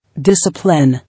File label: "Discipline", English